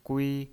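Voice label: "gui", Mandarin Chinese, first tone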